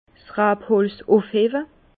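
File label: "rabholz", Alsatian, Bas Rhin, pampres; pampres ramasser les; vin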